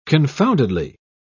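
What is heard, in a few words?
"confoundedly", British English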